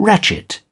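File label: "rasp", British English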